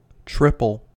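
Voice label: "triple", American English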